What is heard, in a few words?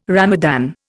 "Ramadan", Indian